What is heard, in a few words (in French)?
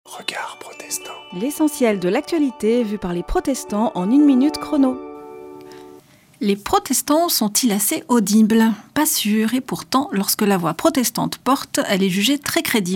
Spot radio; Regards protestants